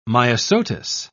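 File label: "myosotis", British English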